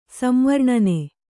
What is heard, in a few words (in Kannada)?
"ಸಂವರ್ಣನೆ", Kannada